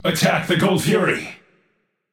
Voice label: vox attack; smite; ra; voicepack